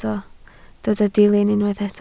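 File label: "dilyn" Welsh, spoken word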